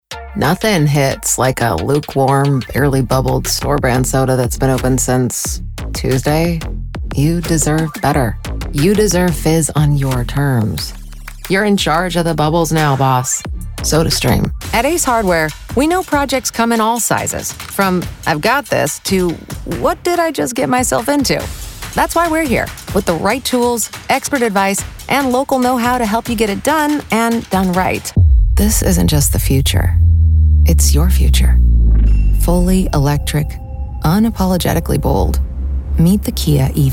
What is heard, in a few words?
Commercial; vo Commercial Demo